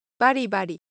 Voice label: Japanese, Ideo Phones

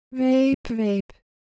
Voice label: Korean, Ideo Phones

